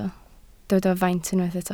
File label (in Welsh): "faint", Welsh